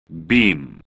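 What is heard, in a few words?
"beaming", spoken word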